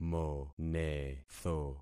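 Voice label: "monetho", concatenated syllables; Affixing experiment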